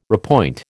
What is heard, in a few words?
"repoint", American